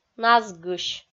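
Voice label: "назгысь", Komi, female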